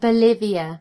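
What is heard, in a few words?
"bolivia", British English; gcompris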